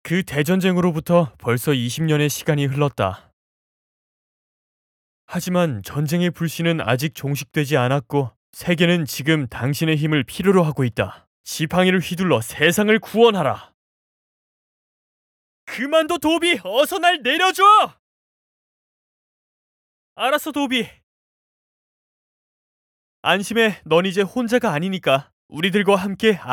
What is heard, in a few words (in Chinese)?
外籍韩语男 角色